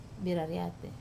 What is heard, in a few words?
"rariat", Wamesa